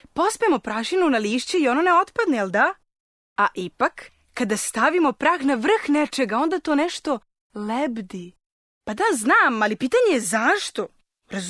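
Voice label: serbian female character voice; voice demos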